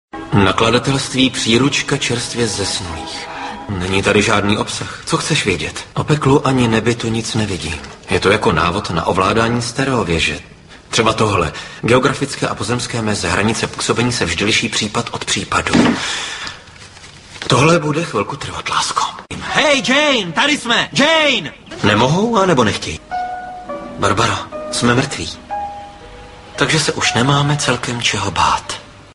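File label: male, Czech, drama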